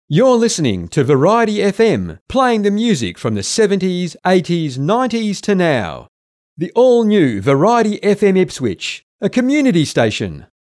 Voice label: english australian promos

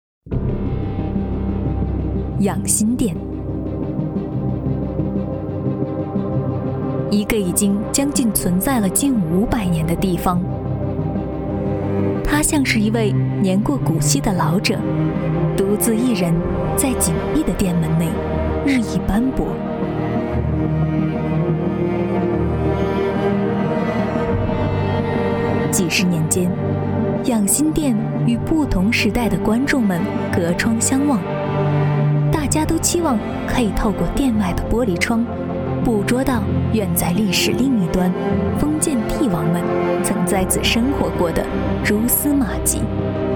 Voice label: chinese mandarin narration

